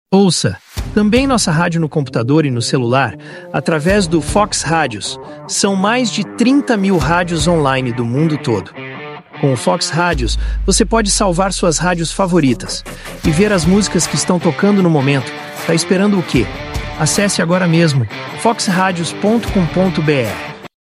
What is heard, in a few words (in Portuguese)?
Spot Voz Masculina; Fox Radios